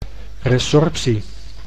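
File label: "resorptie", Dutch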